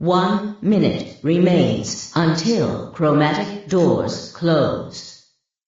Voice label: announcer closing in 5 min